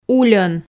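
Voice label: "ульӧн", Komi, female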